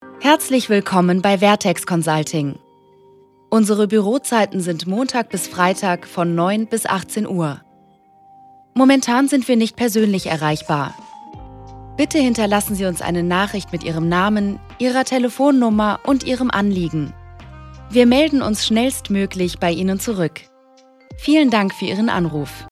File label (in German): Anrufbeantworter sachlich